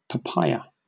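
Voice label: "papaya", British English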